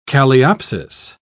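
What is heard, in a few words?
"calliopsis", British English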